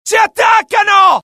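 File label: alert, Italian; mohaa; dialogue; sighted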